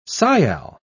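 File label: "sial", British English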